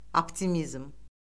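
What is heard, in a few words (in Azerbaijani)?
"optimizm", Azerbaijani; Orfoqrafiya Lüğəti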